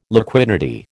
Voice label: "liquidity", American

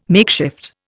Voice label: "makeshift", Indian

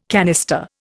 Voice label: "canister", Indian

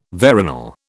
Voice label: "veronal", British

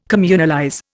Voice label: "communalize", Indian

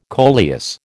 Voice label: "coleus", American

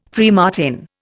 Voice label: "freemartin", Indian

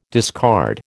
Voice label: "discard", American

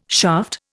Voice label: "shaft", Indian

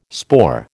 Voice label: "spore", American

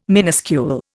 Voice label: "minuscule", Indian

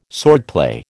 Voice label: "swordplay", American

